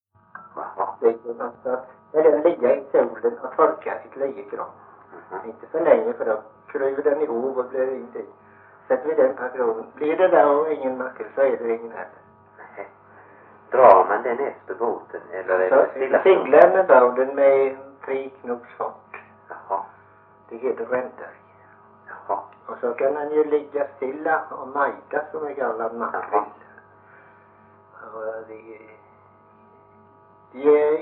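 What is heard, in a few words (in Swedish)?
Morup Halland, dialekter; Folkminnen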